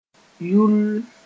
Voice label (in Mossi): "yulll", moore